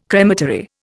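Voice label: "crematory", Indian English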